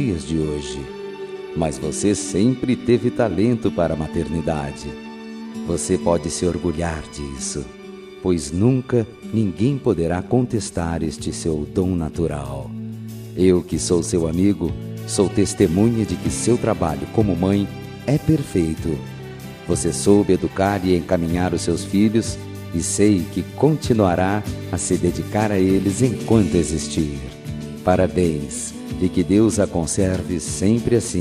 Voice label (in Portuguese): Dia das Maes pra Amiga, M